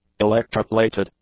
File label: "electroplated", English; adjectives